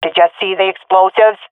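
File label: swat female; Counter Strike; CS:GO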